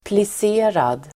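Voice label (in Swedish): "plisserad", Swedish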